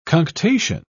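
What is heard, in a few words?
"cunctation", British English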